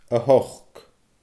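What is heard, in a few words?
"a h ochd", Scottish Gaelic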